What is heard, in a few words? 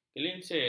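"kelingsir", Malay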